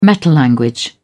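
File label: "metalanguage", English, British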